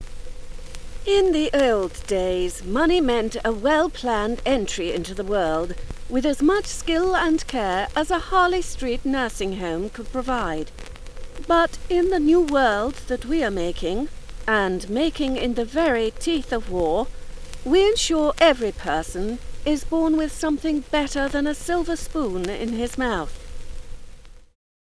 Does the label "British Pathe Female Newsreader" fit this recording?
Yes